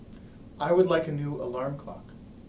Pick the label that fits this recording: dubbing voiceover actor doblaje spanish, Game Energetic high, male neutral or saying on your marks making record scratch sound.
male neutral